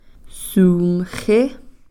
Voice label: "sumxi", Cow Creek

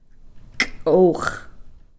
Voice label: "k'o'x", tarweed seeds

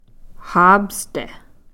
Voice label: "haapsti", Cow Creek

